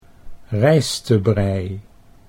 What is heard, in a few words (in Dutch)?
"rijstebrij", Dutch